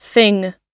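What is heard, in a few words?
"thing", US English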